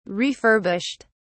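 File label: "refurbished", British English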